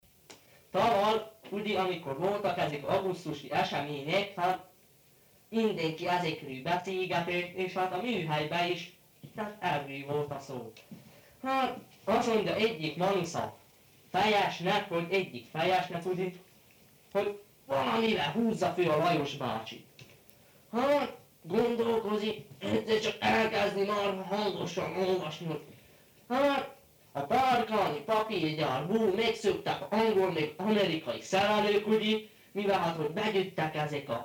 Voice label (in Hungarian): nyelvjaras gyujtesek